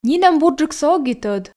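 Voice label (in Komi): нинӧм бурджыксӧ ог и тӧд, Komi, female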